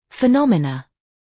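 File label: "phenomena" British; Space, the planets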